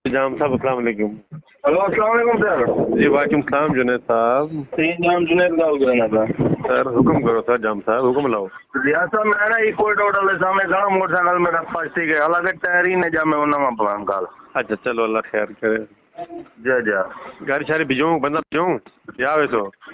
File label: Call Recordings